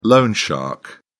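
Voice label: "loan shark", British English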